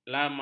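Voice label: "lama", Malay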